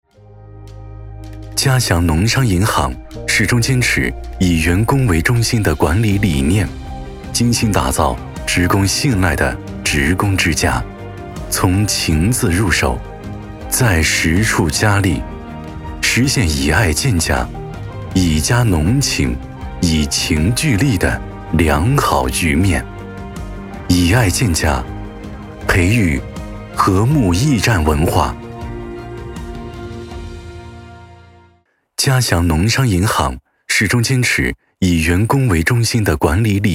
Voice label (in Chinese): 男 宣传片 品质解说; 银行